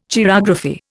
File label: "chirography", Indian